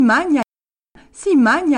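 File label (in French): magna si magna, Corsican, Gravona (sud); il/elle (se) mange…; Corse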